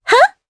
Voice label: Vox Attack jp; Yuria; kingsraid